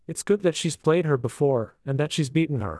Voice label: improved conversational male